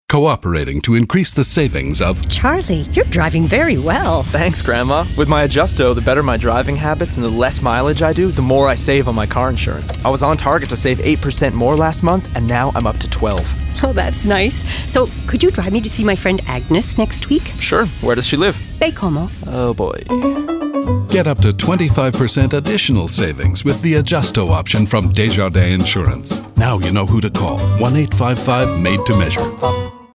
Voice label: radio talk too much; en